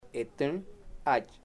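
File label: will you go field, chamacoco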